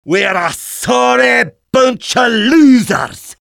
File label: jeers; demoman; Garry's Mod; tf2 content